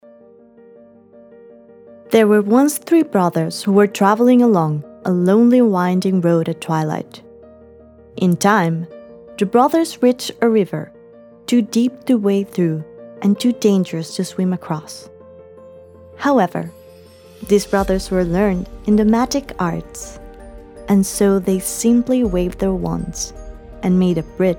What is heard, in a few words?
narr english